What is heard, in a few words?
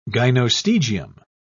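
"gynostegium", British English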